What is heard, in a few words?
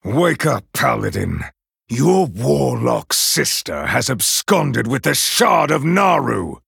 Male Night Elf; Kurtrus; Cariel